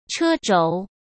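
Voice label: "车轴", Mandarin Chinese; hsk; chinese flashcards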